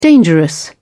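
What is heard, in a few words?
"dangerous", British English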